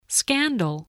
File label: "scandal", english spelling